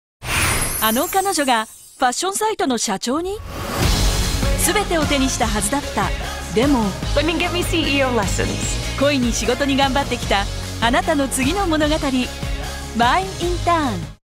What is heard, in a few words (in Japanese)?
感動、お洒落、ヒューマンドラマ; movies; マイ・インターン